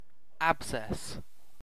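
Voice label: "abscess", British English; pronunciation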